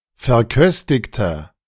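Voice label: "verköstigter", German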